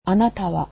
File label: "you", Japanese